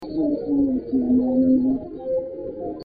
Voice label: furniture room whisper cubby; paranormal; elsings 2nd hand shop